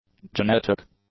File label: "genetic", English; adjectives